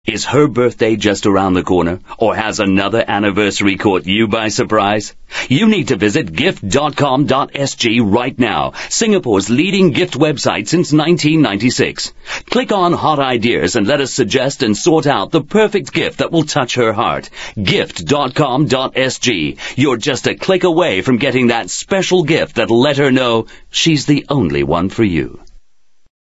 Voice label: Asian English Voice

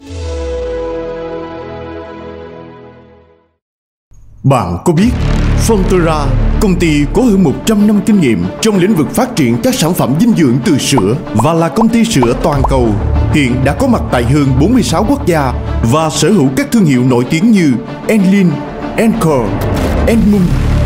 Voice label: South TVC Male, South Vietnamese; Cty Sữa Alene

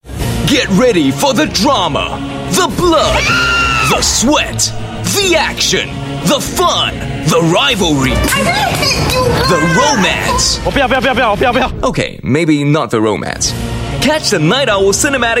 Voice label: Asian English fun pass challenge; Sentosa

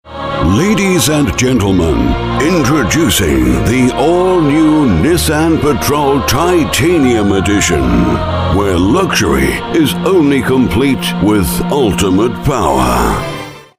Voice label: UK English Awards Ceremony, Male

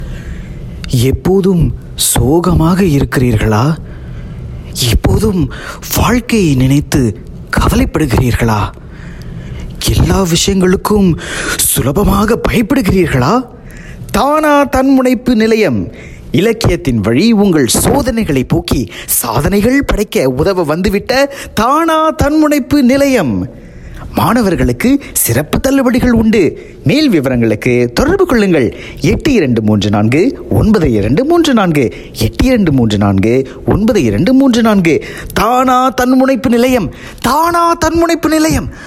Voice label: Tamil SG Clean VO

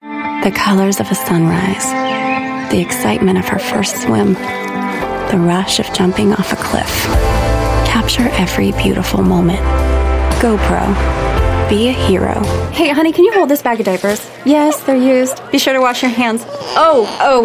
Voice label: US English Commercial, Female

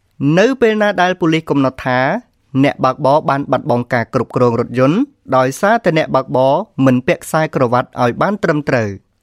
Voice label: Khmer Male E-Book

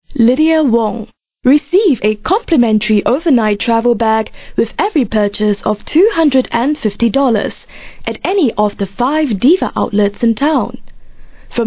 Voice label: Asian English F

